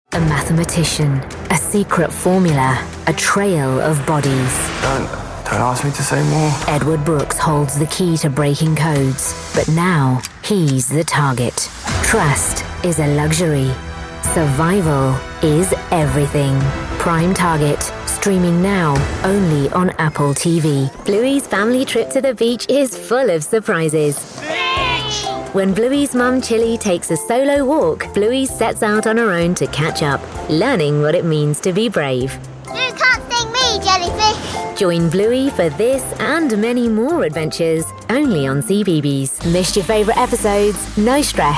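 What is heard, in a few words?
tv promo, British, Urban British and Indian accents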